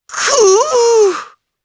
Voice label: Damage, jp; Oddy; kingsraid